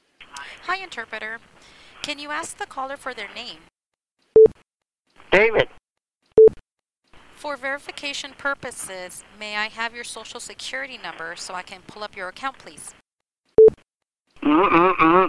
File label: Financial Hardship Application Cantonese without interpretation